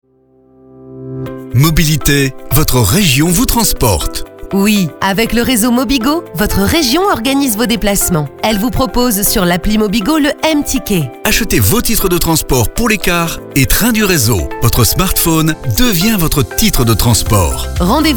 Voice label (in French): Spot; Mobigo